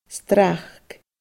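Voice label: "srac", Scottish Gaelic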